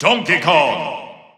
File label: English Announcer; Donkey Kong; SSB4-SSBU